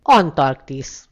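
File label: "antarctica", Hungarian; gcompris; geography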